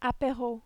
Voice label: "apero", French